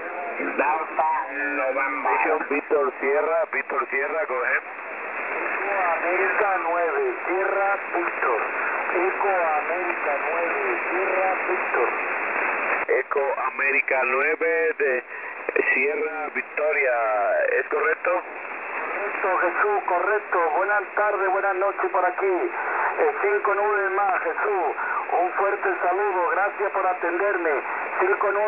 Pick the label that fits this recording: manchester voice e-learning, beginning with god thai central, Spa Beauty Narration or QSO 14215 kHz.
QSO 14215 kHz